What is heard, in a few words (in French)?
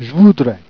"j'voudrais", Jèrriais